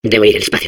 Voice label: Space core babble, es; Debo ir al espacio.